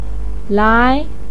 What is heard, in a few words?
"lai2", Chinese pinyin voice; 太阳从西边出来